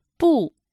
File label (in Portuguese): "nao" em mandarim